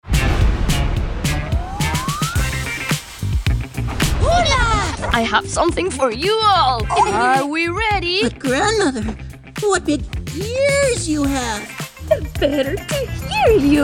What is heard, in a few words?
English animation